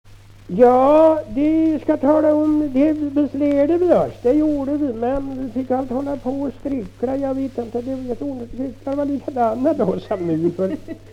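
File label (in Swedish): Kräklinge Närke, dialekter; Folkminnen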